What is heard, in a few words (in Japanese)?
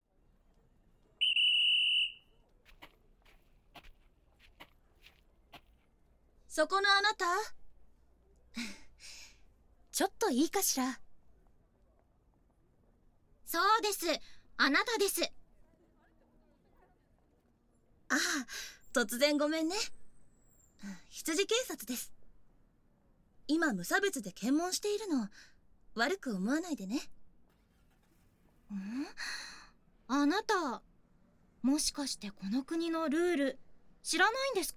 耳舐め誘惑検問